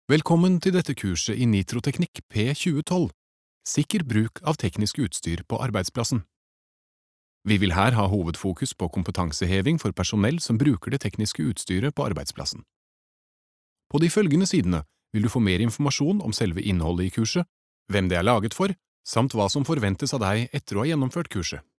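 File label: Norwegian e-learning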